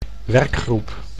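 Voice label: "werkgroep", Dutch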